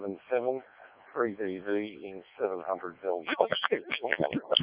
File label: decoded speech, 7177KHz